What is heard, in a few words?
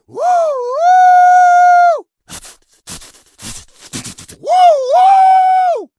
Troll Male Choo Choo